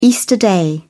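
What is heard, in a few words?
"easter day", British English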